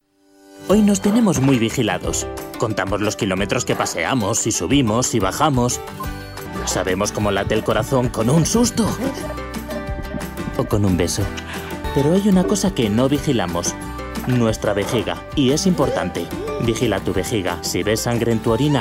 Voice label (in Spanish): corporativo